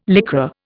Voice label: "Lycra", Indian